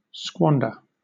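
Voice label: "squander", British English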